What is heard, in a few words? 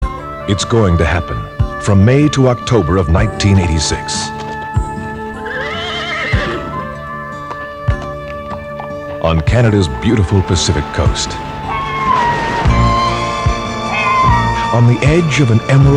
commercial; Expo 86